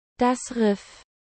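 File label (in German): "das Riff", german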